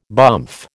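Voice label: "bumph", American English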